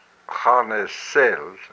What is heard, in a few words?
"I sweat", hupa